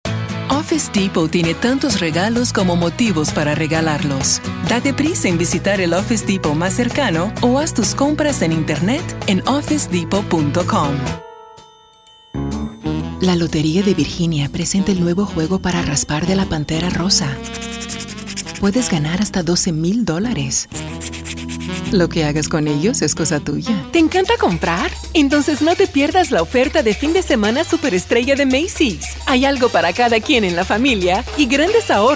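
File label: Spanish Voice Over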